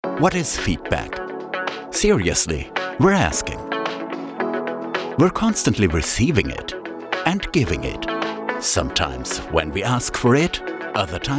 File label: english corporate videos, german